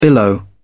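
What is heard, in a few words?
"billow", English, uk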